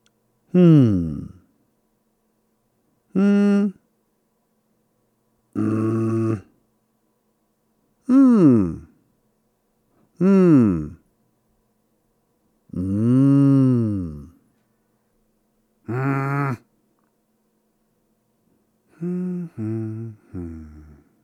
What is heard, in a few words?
Voice-Over example; Body Tone Multimedia; Example of Non-Verbal Cues